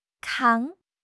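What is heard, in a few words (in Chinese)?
"扛", Chinese; HSK; HSK6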